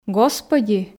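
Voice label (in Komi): "господи", Komi, female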